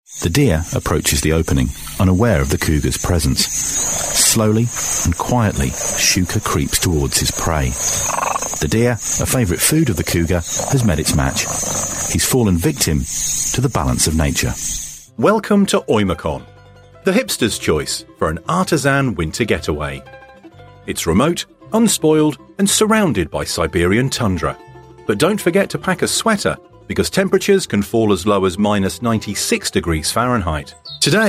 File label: english british documentaries; english british voice actors